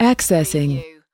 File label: "accessing", US female; lynne publishing